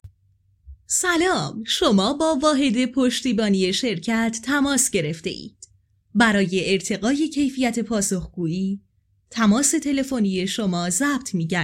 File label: narrator